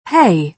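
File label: "pay", British English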